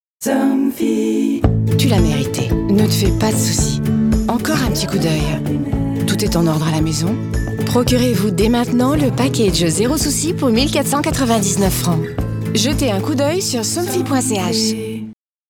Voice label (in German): Dynamisch; Fremdtake